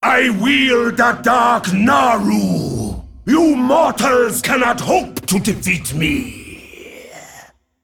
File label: Male Dragon Introduction